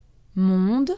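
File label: "monde", French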